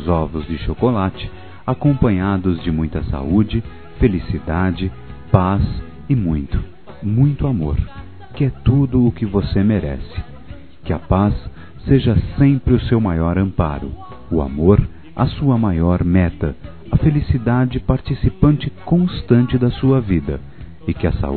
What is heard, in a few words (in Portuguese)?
PARA PAI, Telemensagens, Páscoa, masc